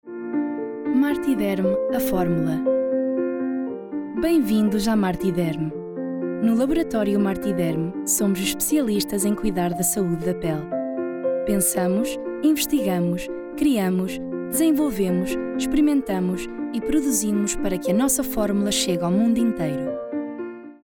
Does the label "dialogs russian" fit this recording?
No